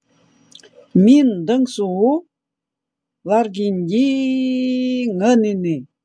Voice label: УЛЬЧСКИЙ У меня хорошо идут дела; Мин’дэнсэу ларгиндии ганыны.

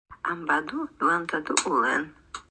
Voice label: НАНАЙСКИЙ Тигру в тайге хорошо

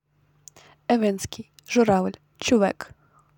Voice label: эвенский журавль

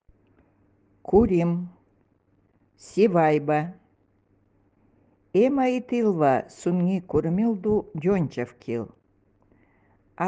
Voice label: свадьба эвенкийский

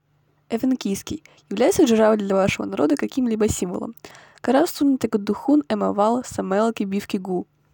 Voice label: эвенкийский предложение

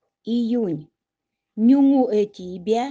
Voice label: июнь, удэгейский

